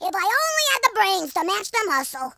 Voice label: "oops", American Wrestler